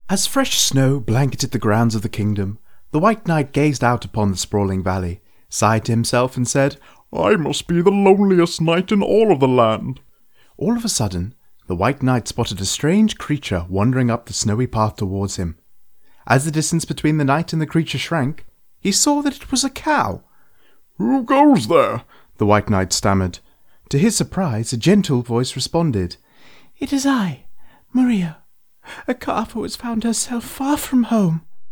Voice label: Audiobook

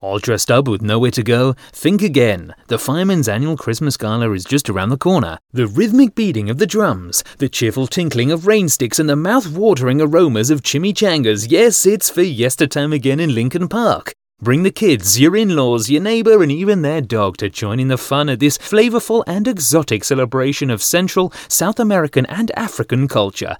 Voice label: Commercial

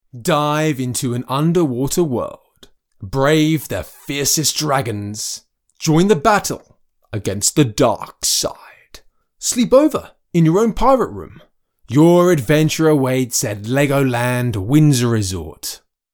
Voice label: Commercial

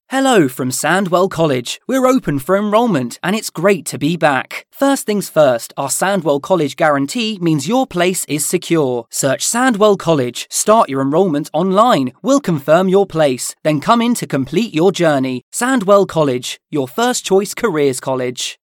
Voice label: Commercial

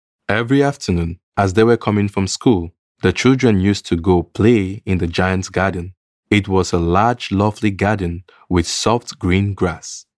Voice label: Pasaka ENG

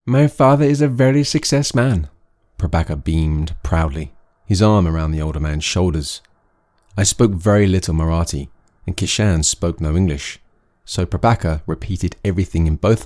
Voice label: Audiobook

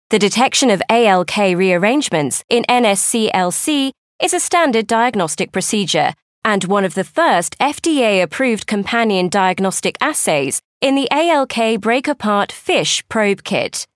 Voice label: Narration

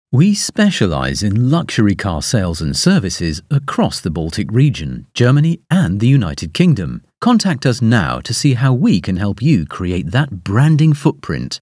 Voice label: Commercial

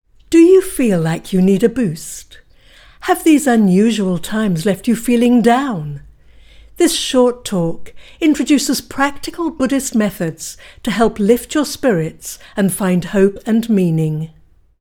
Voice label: Commercial

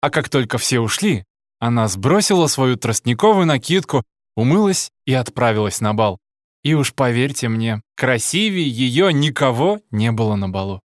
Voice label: RUS

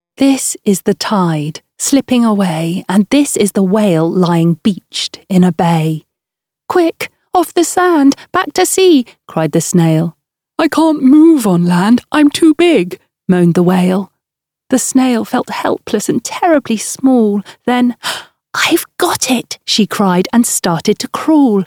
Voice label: Narration

